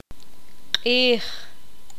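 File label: "día", Poqomam, female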